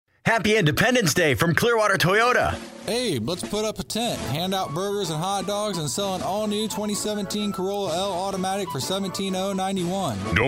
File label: Radio